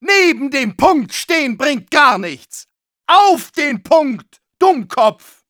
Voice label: Medic, de; stand on the point

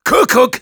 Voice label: taunts, de

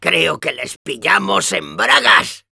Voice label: cheers, es

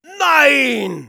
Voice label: negative vocalization, de; "Nein!"; Soldier

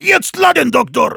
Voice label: Heavy activate charge, de

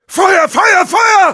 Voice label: auto on fire, de

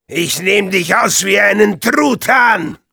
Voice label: taunts, de

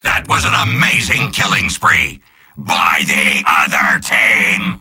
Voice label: Soldier jeers

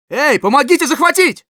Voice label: help me capture ru

